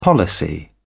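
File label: "policy", British English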